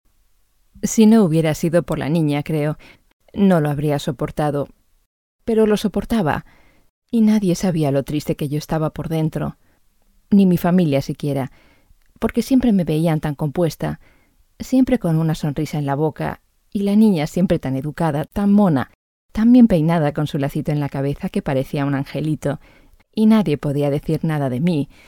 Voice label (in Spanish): es audiolibro; Etxebarria